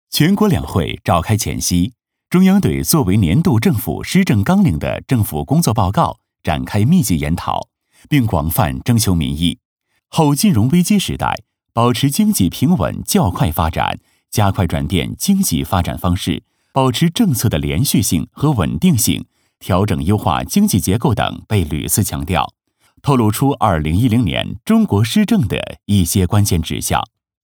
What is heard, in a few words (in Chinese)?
男, 新闻; 全国两会